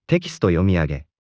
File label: voicefile Male